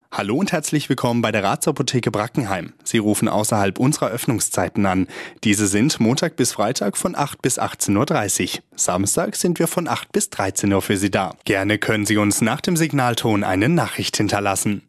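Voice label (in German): telefon, deutsch männer; sprecher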